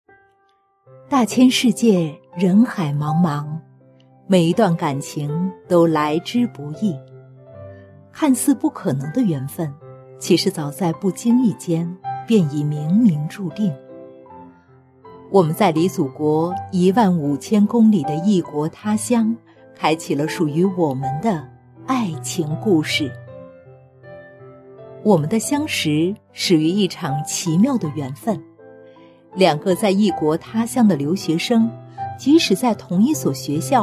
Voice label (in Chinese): 女 独白 娓娓道来 回忆 爱情故事